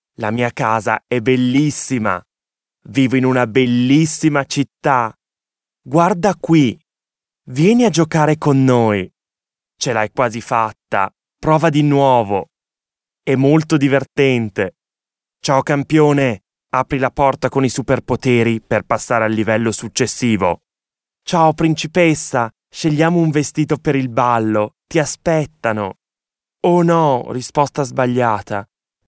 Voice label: Male Italian Toys